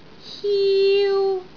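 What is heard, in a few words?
"hiu1", Cantonese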